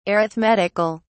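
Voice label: "arithmetic(al)", British English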